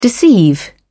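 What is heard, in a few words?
"deceive", English, British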